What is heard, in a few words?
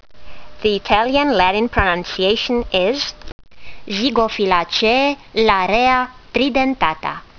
latin; Larrea tridentata